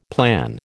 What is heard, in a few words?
"plan", American